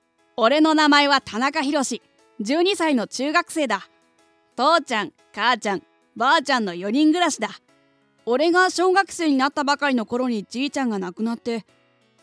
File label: voice sample comic